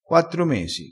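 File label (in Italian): "nda ritto", dialettale crespinese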